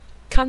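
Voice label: "kan", Mandarin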